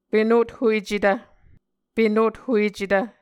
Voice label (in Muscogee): "penothuecetv", Muscogee